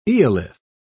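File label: "eolith", English, British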